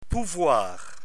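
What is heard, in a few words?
"pouvoir", French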